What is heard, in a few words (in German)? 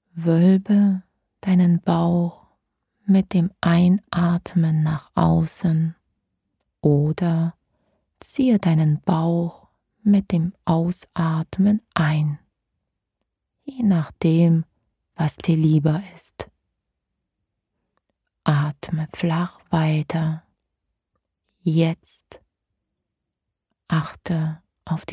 progressive muskelentspannung hoerprobe